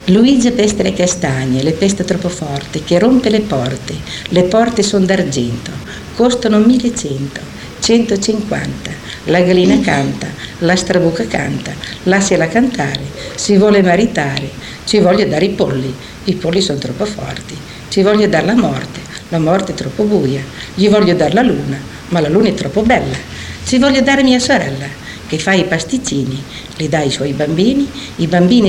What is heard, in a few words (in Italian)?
filastrocca; luigia pesta le castagne